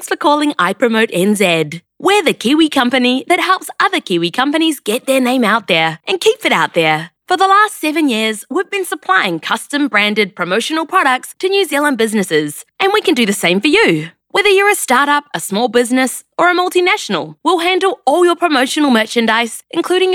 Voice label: NZ PHONE